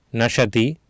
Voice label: "naSati", Sanskrit